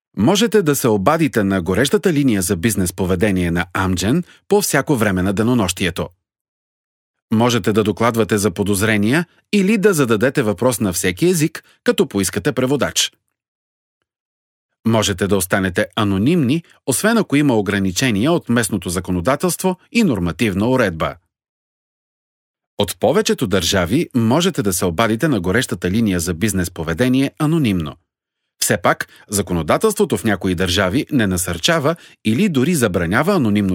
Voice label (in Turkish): Bulgarca Erkek; seslendirme